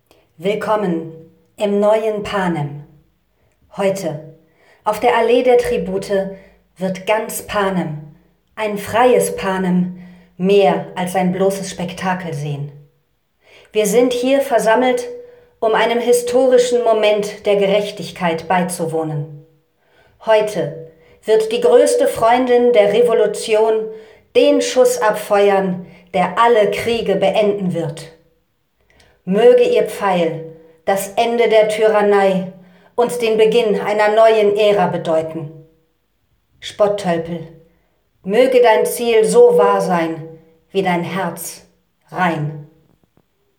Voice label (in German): Synchron; Panem